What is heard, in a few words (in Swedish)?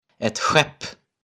"ett skepp", swedish